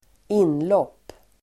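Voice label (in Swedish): "inlopp", Swedish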